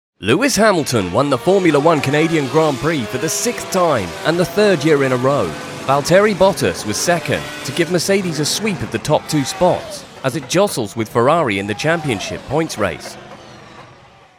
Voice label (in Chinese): 轻快 F1比赛解说